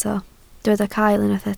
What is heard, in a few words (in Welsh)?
"cael", Welsh